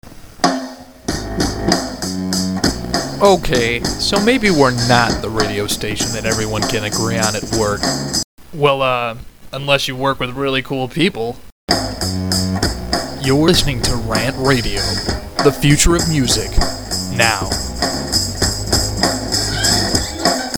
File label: Promo; Rant At Work; RantRadio; RantMedia; Station Promos-Listener Submitted